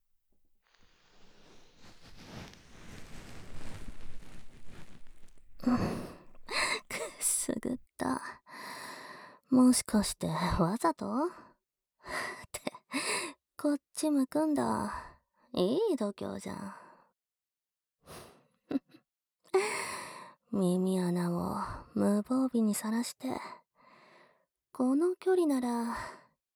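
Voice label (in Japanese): 【左】こしょこしょ, ASMR; OP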